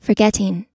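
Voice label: "forgetting", American English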